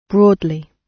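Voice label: "broadly", British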